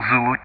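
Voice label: "Zulu", English; Ka-50; Alphabet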